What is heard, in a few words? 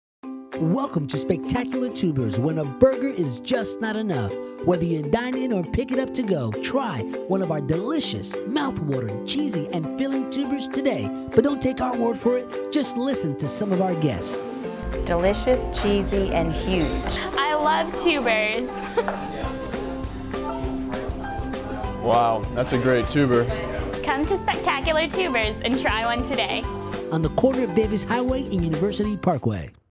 Commercial